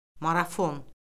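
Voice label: "marafon", Azerbaijani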